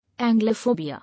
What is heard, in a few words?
"Anglophobia", English